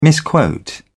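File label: "misquote", British English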